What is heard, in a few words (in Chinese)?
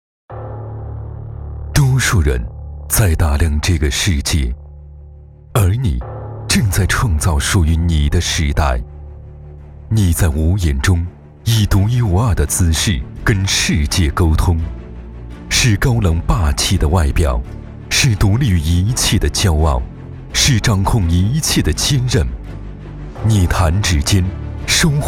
男, 广告